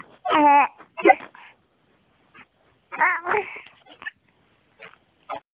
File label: baby talking